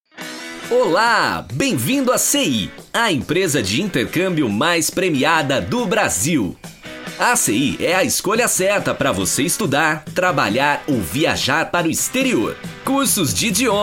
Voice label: Publicidade

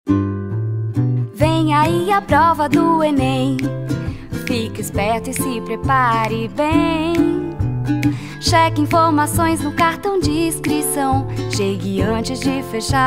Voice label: locucao e jingle; enem

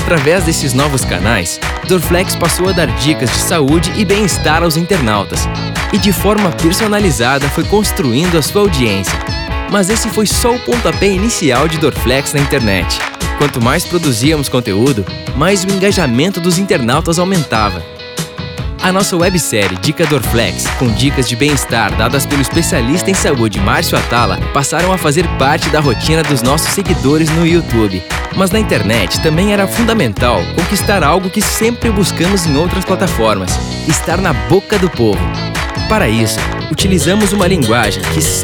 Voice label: Institucional Vídeocase Inserção Digital; Dorflex

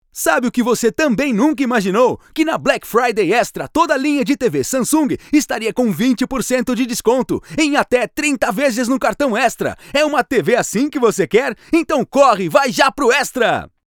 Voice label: Casting Extra Varejo

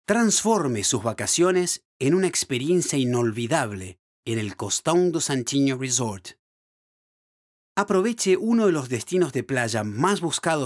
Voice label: Institucional Espanhol; Costao Do Santinho

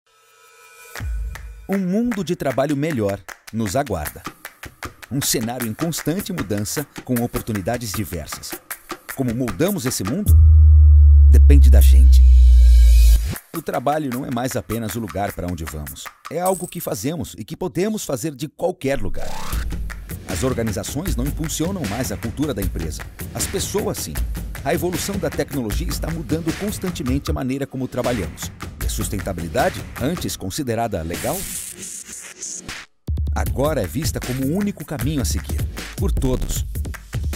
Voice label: LOC INSTITUCIONAL

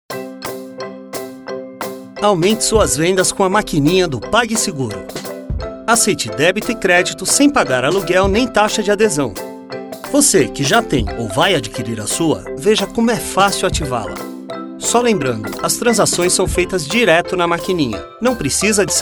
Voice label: Locucão interpretada; PagSeguro

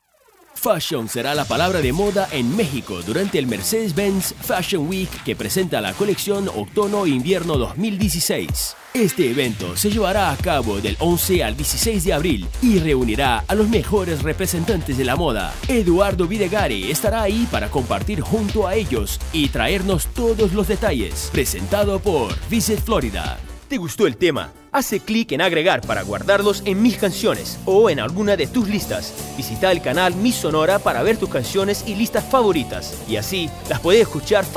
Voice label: Espanhol

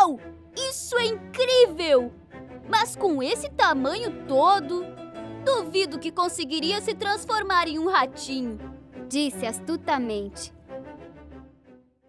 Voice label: Livro gato de botas vozes

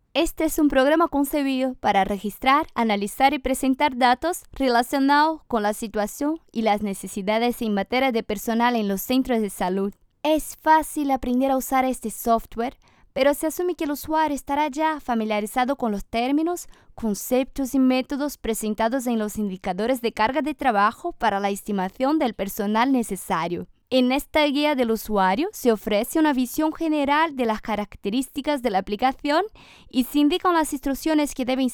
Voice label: Locucao Espanhol

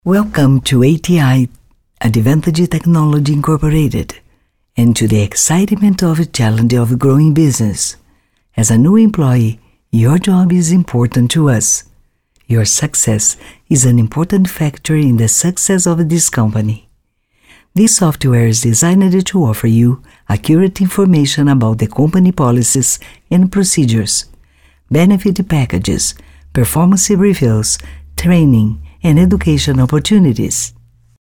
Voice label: INGLES ESPERA TELEFÔNICA; ATI

